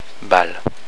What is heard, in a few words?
"bal", French; balle [bal']